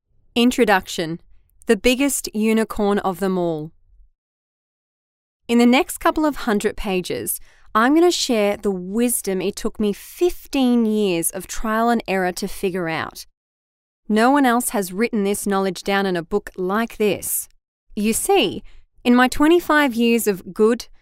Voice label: Audiobook sample